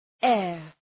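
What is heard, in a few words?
"heir", English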